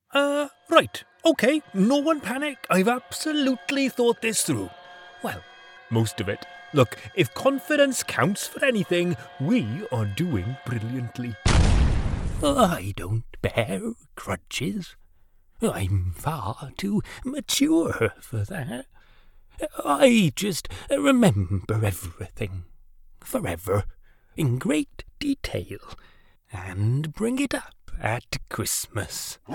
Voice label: Animation